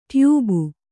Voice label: "ಟ್ಯೂಬು", Kannada

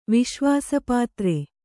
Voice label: "ವಿಶ್ವಾಸಪಾತ್ರ", kannada

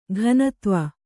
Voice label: "ಘನತ್ವ", Kannada

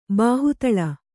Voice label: "ಬಾಹುತಳ", Kannada

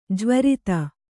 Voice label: "ಜ್ವರಿತ", Kannada

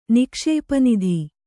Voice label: "ನಿಕ್ಷೇಪನಿಧಿ", Kannada

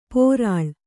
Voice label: "ಪೊರಳ್", kannada

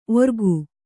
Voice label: "ಓರ್ಗೈ", Kannada

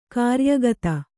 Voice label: "ಕಾರ್ಯಗತ", Kannada; kāryagata; executed; performed; done; accomplished

